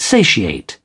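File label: "satiate", British English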